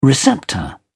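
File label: "receptor", British English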